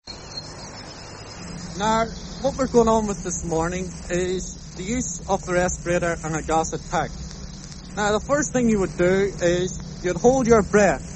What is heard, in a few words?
radio news bbc gas mask drill